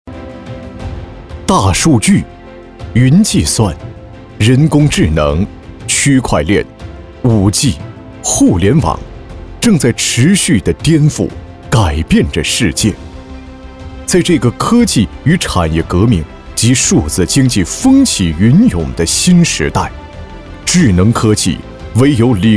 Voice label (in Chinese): 男 科技感; 思蓝